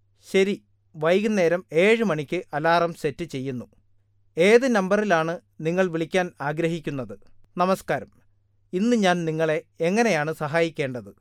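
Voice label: Malayalam male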